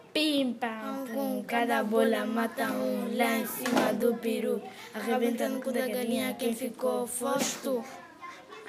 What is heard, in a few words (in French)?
Am Stram Gram, Portugais Angola